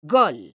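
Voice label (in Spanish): "gol" Spanish, female; mekanta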